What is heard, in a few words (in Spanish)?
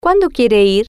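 cuando quiere ir, Spanish, female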